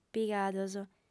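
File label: "pigadas", female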